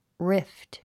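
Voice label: "rift", English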